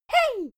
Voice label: child "hey"